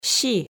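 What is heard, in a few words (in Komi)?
"си", Komi, female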